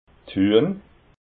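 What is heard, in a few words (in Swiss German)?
"huehn", Alsatian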